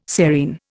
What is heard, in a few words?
"serein", Indian